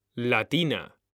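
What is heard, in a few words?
"latina", Spanish, male; mekanta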